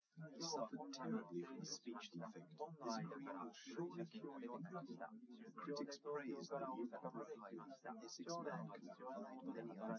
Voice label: test male liverec 250ms 5cm src; SiSEC08; SiSEC underdetermined